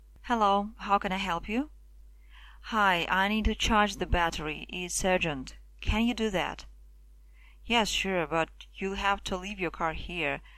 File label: dialog gas station